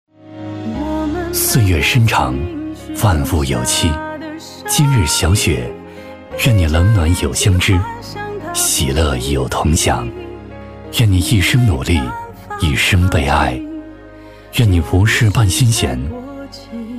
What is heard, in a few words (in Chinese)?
男-抖音广告- 磁性走心; 小雪节气; 男59-抖音广告《小雪节气》- 磁性走心